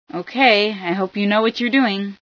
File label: ok i hope, female sci